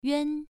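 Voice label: "yuan1", Chinese pronunciation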